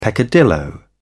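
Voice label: "peccadillo", British English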